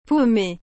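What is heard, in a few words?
"pommee", French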